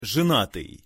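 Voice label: "zenatyj", Russian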